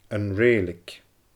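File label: "An Rèilig", Scottish Gaelic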